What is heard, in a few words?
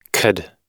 "could", us